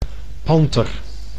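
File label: "panter", Dutch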